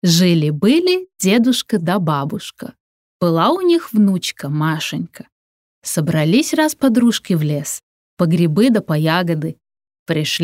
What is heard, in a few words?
RUS